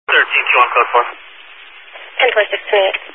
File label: Highway Patrol, scanner